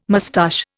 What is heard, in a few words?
"moustache", Indian